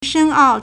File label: "shen1ao4", Chinese